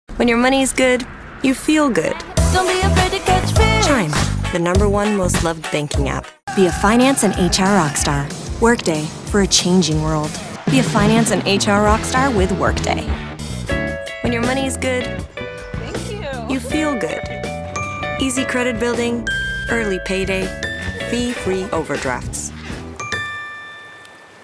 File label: Commercial VO Reel